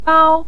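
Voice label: "gao" neutral tone, Mandarin Chinese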